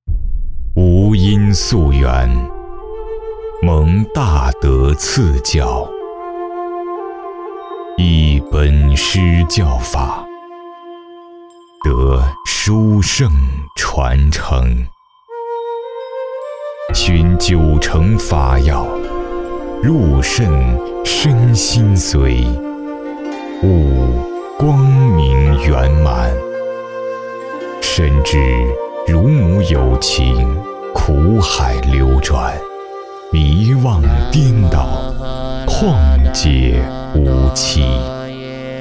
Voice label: 男 佛经冥想; 觉性颂

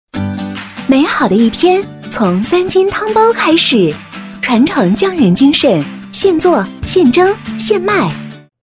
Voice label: 女 促销; 三津汤包

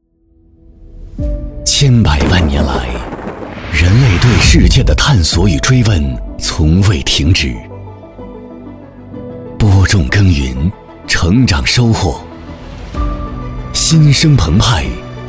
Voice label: 男 广告 大气 感情 建筑地产类广告 烟嗓

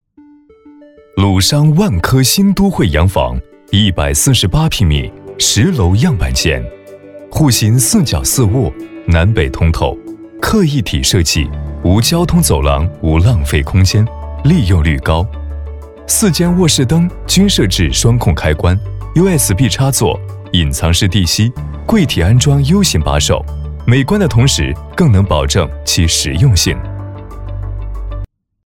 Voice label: 男 专题 大气 商务; 鲁商万科

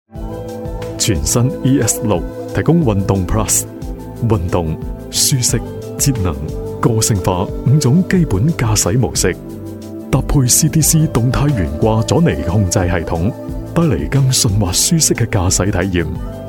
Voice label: 粤男 广告 汽车; 蔚来ES6

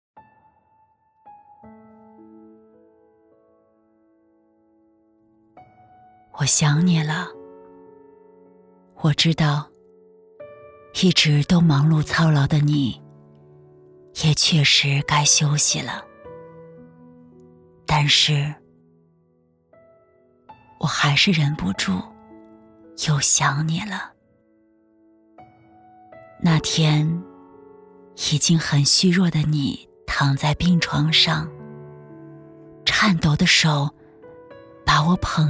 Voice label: 旁白 低沉伤感; 我想你

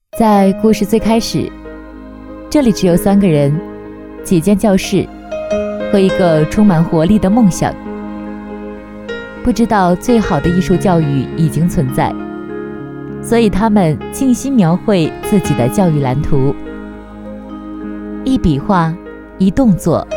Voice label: 女, 独白; 在故事最开始

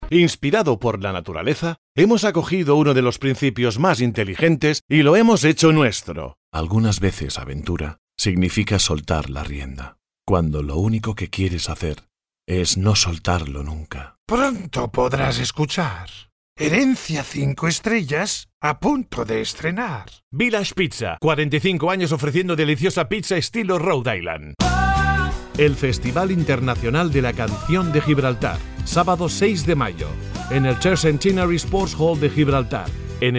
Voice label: 外籍西语男--角色模仿

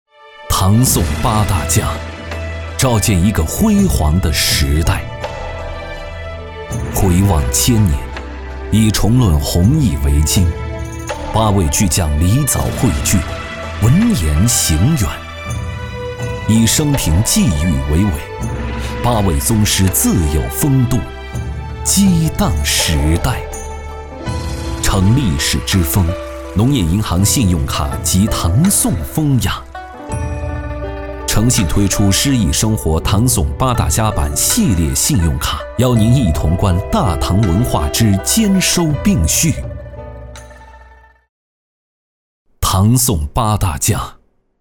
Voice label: 男 宣传片 高端宣传

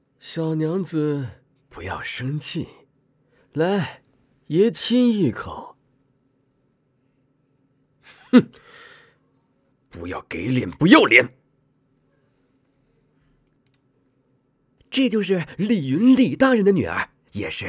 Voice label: 男 角色 有声 小说 纨绔

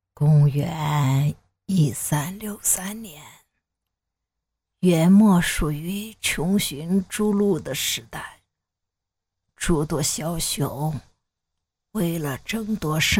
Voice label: 旁白 70岁老奶奶; 公元1363年

